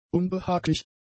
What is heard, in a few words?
"unbehaglich", German; adjectives